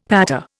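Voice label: "pattée", Indian; Dictionary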